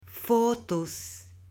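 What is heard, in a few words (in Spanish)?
"fotos", Spanish, female; UTENSILIOS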